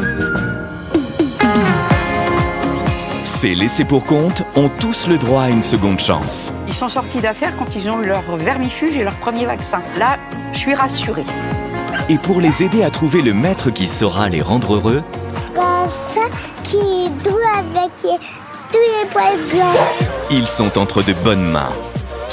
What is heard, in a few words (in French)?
complice empathique, Animaux A Adopter, Voix off documentaire